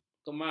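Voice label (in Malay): "kemas", Malay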